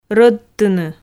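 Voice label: "рӧдтыны", Komi, female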